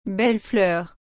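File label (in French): "belle fleur", French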